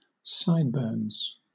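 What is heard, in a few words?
"sideburns", British English; pronunciations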